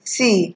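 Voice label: "see", Nigerian Pidgin, female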